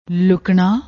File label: "lookna", Punjabi